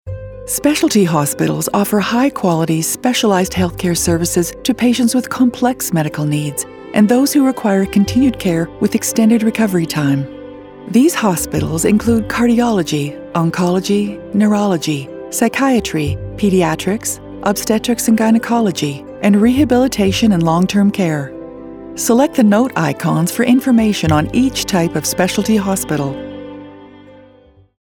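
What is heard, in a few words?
Medical Friendly, female voice; COMPASSION; reassuring; trustworthy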